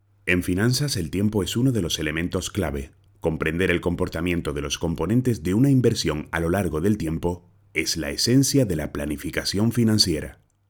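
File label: locutor canario spanish voiceover, male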